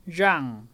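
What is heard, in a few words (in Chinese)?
rhang1, 客語 海陸腔 鼻尾韻; rhangˋ; 縈; 拼音查詢：【海陸腔】rhang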